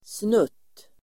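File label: "snutt", Swedish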